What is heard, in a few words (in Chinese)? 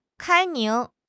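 "开明", Chinese; HSK6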